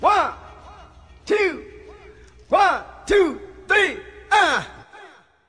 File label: "1 2 uh", voice; numbers and letters